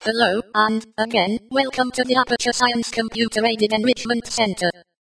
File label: espeak; glados